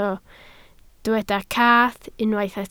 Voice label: "cath", Welsh

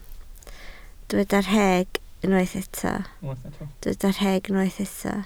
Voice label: "rheg", Welsh

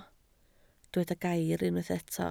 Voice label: "gair" Welsh

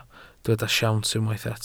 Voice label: "siawns", Welsh

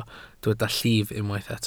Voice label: "llif", Welsh

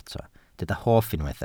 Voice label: "hoff", Welsh

